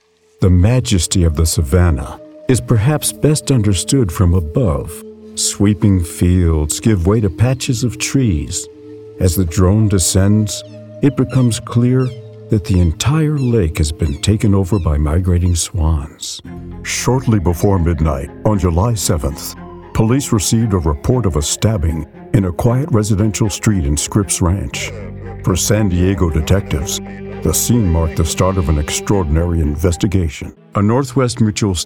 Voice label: Narration